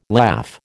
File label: "laugh", American